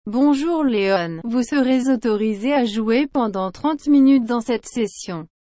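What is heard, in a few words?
speech sample, French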